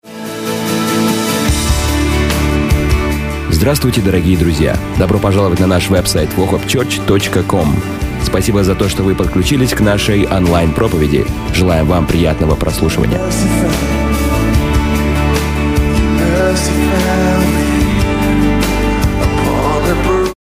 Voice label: russian m commercial; voice demos

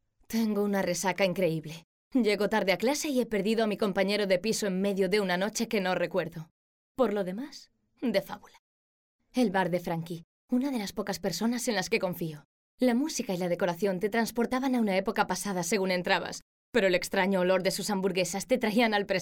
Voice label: spanish f character; voice demos

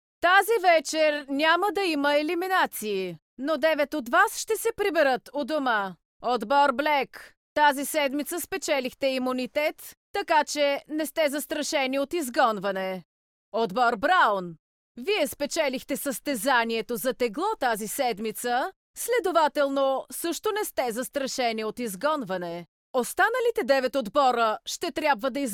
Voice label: bulgarian f narration; voice demos

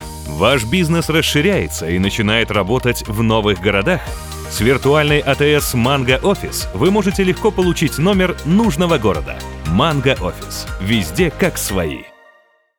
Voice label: russian m commercial; voice demos

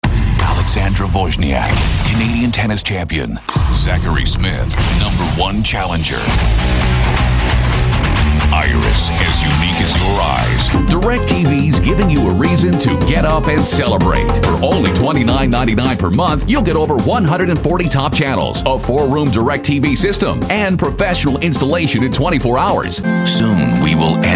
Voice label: english us m commercial, voice demos

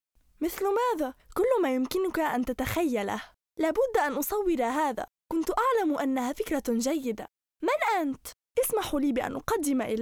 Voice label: arabic f kid character

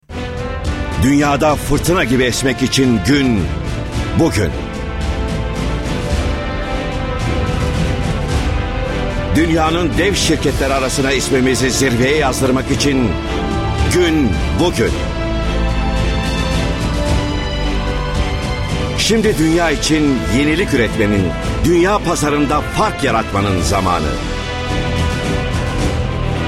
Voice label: turkish m commercial

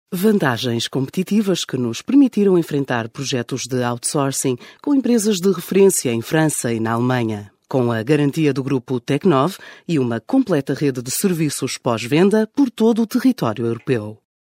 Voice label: portuguese f narration; voice demos

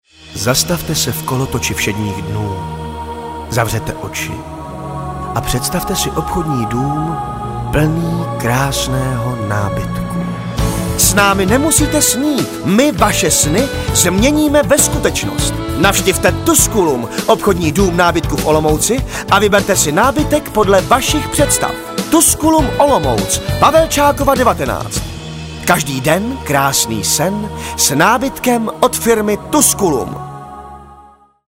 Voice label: czech m commercial

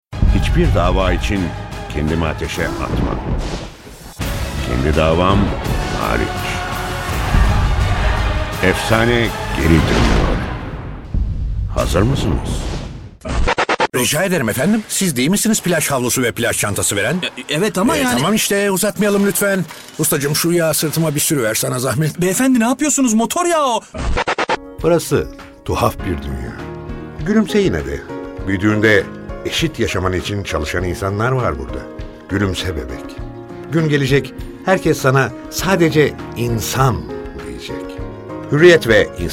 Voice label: turkish m commercial, Turkish voice; voice demos

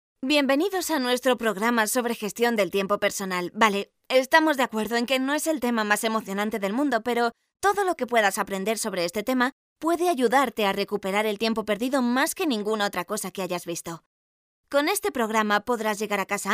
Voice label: spanish f narration, spanish voice demos

